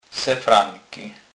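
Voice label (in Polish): "sefranky", Kurpie dialect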